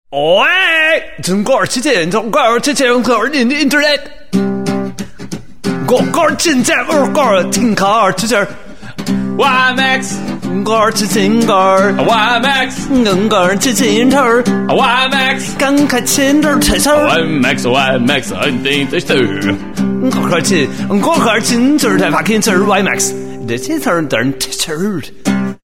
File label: ad in chinese; wimax